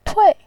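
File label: "tui", Mandarin Chinese, fourth tone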